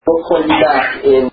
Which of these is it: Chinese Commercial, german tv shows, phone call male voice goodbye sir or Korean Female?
phone call male voice goodbye sir